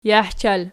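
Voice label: "jahchal" nominative, Archi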